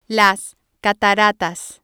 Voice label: waterfalls, spanish